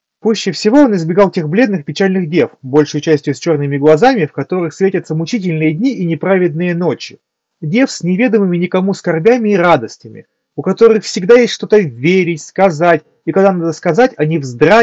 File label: Mic; Запись с микрофона гарнитуры; Honor Choice Earbuds X7 Lite